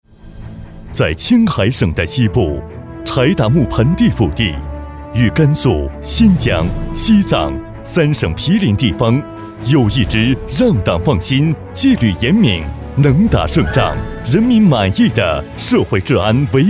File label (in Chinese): 男, 大气党建专题; 海西公安